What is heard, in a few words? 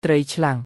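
"ត្រី ឆ្លាំង", Khmer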